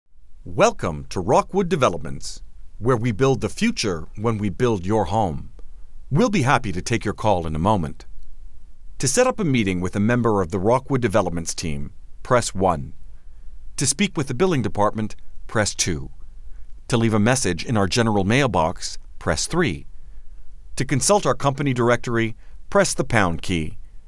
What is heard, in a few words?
Telephone, Voice Work